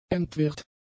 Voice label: "entwirrt", German; adjectives

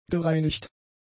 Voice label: "gereinigt", German; adjectives

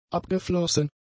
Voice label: "abgeflossen", German; adjectives

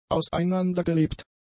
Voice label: "auseinandergelebt", German; adjectives

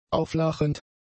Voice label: "auflachend", German; adjectives

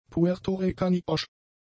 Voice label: "puerto-ricaniosch", German; adjectives

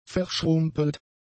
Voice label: "verschrumpelt", German; adjectives

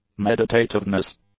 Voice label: "meditativeness", English

